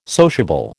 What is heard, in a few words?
"sociable", American